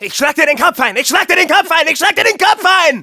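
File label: taunts, German; Ich schlag dir den Kopf ein, ich schlag dir den Kopf ein, ich schlag dir den Kopf ein!; Scout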